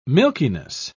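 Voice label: "milkiness", British English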